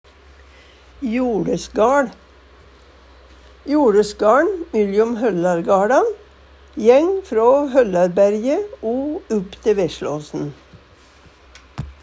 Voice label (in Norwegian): joLesgaL, Numedalsmål